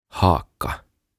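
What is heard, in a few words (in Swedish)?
"haka", Swedish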